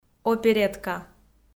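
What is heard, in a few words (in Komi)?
"оперетка", Komi, female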